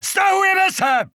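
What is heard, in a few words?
Czech positive; 整队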